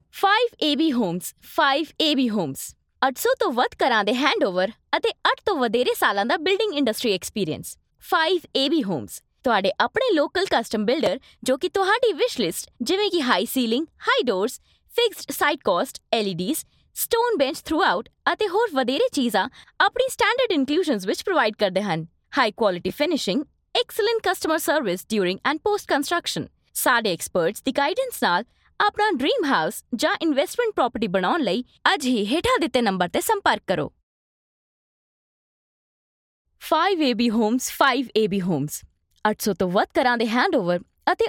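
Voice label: Commercial, Punjabi; voice demos; GoLocalise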